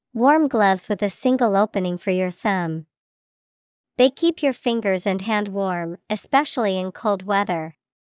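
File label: mitten, English vocabulary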